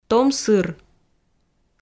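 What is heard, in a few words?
"том сыр", Komi, female; audio dict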